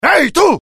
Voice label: anger, dialogue IT; mohaa; attack

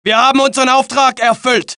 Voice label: German; mohaa

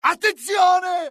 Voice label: alert, dialogue IT; mohaa; attack

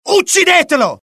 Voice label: alert, dialogue IT; mohaa; attack

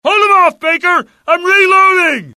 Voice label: reload, US; dialogue; mohaa

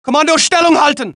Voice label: German; mohaa

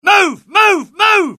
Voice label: alert, UK; mohaa; dialogue

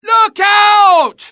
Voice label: alert, dialogue UK; mohaa

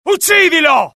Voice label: alert, dialogue IT; mohaa; attack

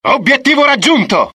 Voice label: axis italian success; dialogue; mohaa

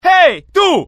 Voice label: anger, dialogue IT; mohaa; attack

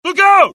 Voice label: alert, dialogue generic US; mohaa

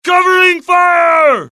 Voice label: suppress, dialogue generic US; mohaa

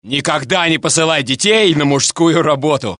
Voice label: Russian; mohaa

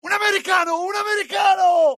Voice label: alert, dialogue IT; mohaa; attack

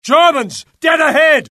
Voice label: alert, UK; mohaa; dialogue

